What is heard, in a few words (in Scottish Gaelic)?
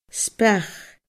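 "speach", Scottish Gaelic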